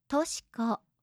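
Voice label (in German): "toshiko", japanische Mädchennamen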